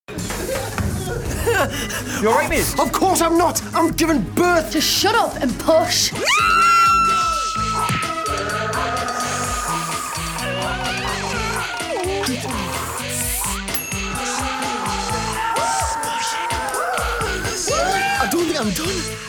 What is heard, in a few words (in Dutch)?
Stoer en Fris, voice-over; MTV